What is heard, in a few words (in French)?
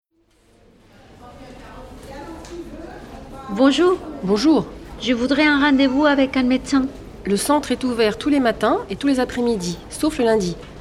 Dialogues prendre RV avec le medecin; RFIAsile